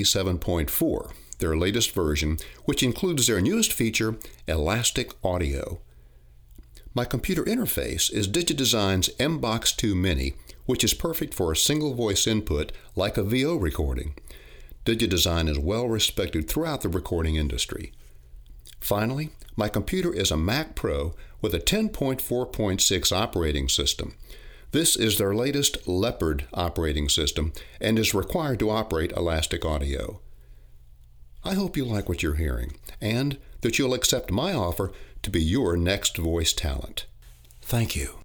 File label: VoiceOver Home Studio